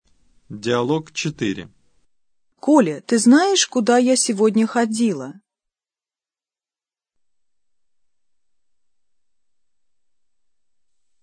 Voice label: dialog, russian